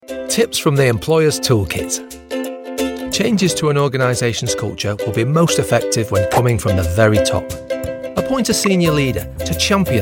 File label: corporate